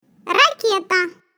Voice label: ROCKET, Russian